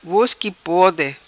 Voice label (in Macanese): Vos qui pode, Macanese